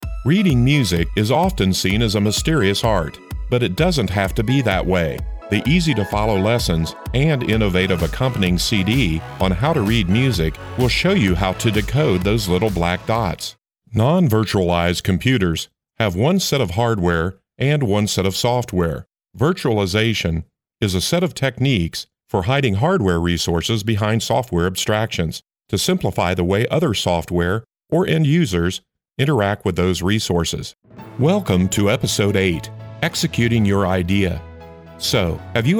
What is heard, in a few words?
e LEARNING